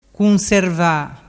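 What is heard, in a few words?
"cunserva", Vernazzese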